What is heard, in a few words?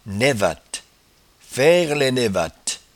"naivatte faire lai naivatte", patois jura